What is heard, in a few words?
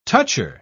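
"toucher", English, British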